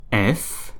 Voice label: French alphabet f

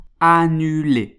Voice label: "annuler", French

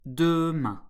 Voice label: "demain", French

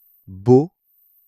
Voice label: "beau", French

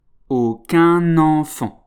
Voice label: "aucun enfant", French

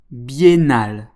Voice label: "biennal", French

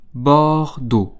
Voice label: "bordeaux", French

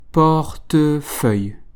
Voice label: "Portefeuille", French

